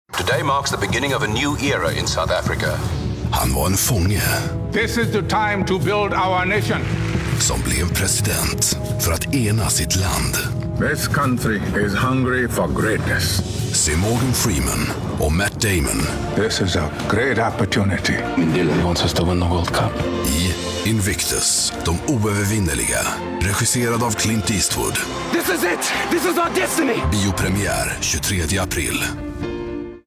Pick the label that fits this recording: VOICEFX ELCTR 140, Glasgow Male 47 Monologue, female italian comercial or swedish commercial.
swedish commercial